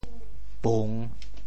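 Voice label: "pung3", Teochew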